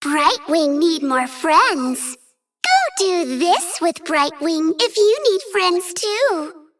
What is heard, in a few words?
Female Dragon Bark; Brightwing